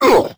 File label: hurt; Crypt of the NecroDancer